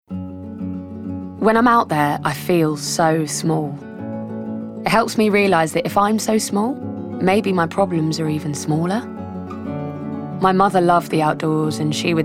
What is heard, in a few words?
Natural